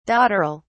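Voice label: "dotterel", British English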